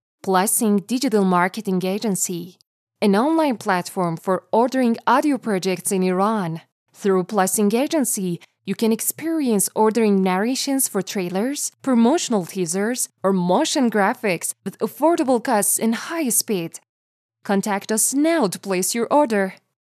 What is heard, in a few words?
narrator